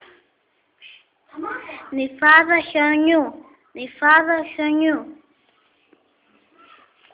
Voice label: snowflake, Greece; νιφάδα χιονιού